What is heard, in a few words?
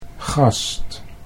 "gast", Dutch